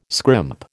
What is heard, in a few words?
"scrimp", American